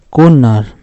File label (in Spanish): marido, Pima, Yécora, Sonora; INALI